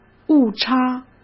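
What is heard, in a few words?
"误差", Mandarin Chinese; chinese-flashcards; hsk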